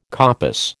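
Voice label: "coppice", American English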